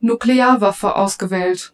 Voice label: German; Depusel

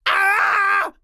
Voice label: Enemy Generic Human Spawn Disbelief

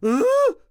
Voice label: Enemy Generic Human Spawn Disbelief

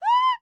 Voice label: Enemy Generic Human Spawn Disbelief; rampage arcade gamerip 2018

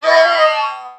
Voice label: Male Death Robot; Mars Sortie

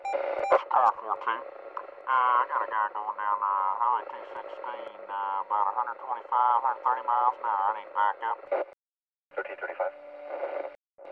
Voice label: Cop talk

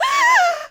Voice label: Enemy Generic Human Spawn Disbelief

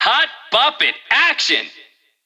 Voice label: Extreme Positive

